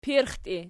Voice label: "pirxtii", Archi